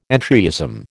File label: "entryism", American